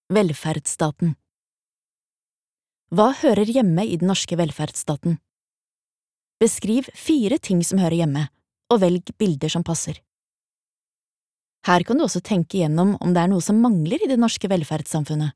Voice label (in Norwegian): Norwegian fagbok, female